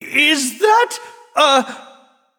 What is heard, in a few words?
Male Nightborne